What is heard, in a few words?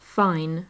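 "fine", British English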